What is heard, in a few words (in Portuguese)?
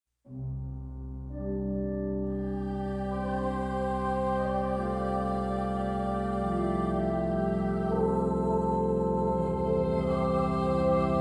NATAL ROMANTICO FEM, Telemensagem de Natal